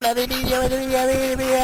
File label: babble